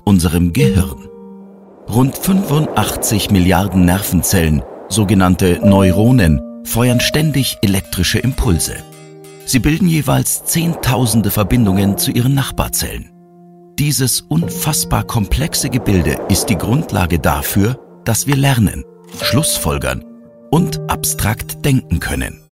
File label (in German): Erklaerfilm Kuenstliche Intelligenz you know kurz